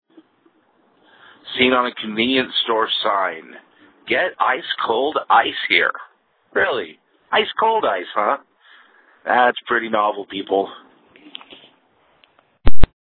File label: google voice message